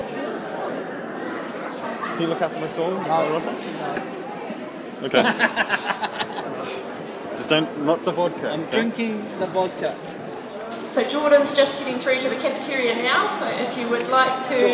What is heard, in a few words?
Baking PA announcement; Episode 87 Eco Festival 2012, Vegan Cooking Classes and Vegan Pizza!